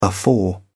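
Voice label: "afore", British English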